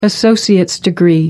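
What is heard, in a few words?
"associate degree", British English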